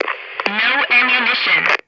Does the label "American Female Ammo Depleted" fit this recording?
Yes